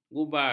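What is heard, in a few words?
"gubal", Malay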